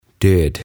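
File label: "Död", Swedish; Kuollut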